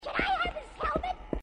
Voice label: have his helmet, grunts